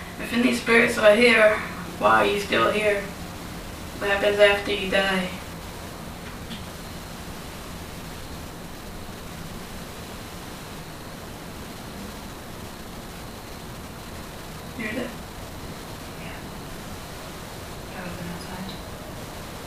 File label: whispering